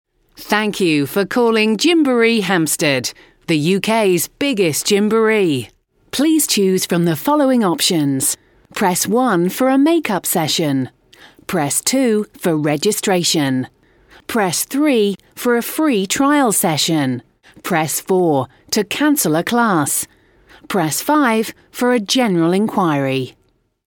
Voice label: UK English Playcentre Phone System, English UK Female